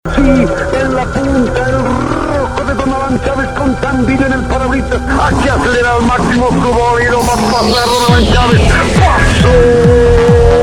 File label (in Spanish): cuña oficial; tambillo 2016